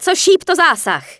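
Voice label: Archer Yes Attack, Czech; wowpreklad; czwow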